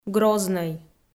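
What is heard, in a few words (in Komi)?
"грознӧй", Komi, female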